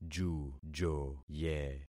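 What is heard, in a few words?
"jujoye", concatenated syllables; Affixing experiment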